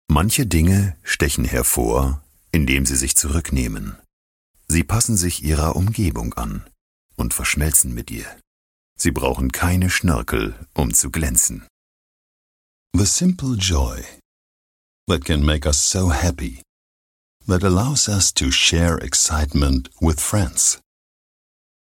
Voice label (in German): engl deutsch; spruchreif studio